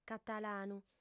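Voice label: "catalanu", female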